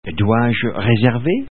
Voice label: French; Lingo